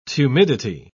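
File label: "tumidity", British English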